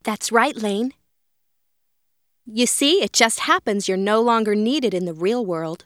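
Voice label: no longer needed, English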